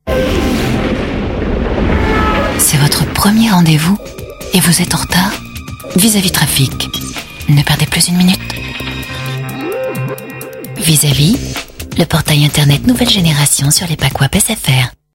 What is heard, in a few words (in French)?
voix grave; sfr vizzavi